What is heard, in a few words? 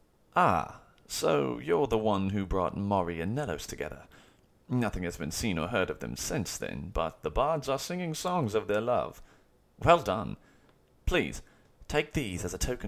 breton, voice auditions; voice acting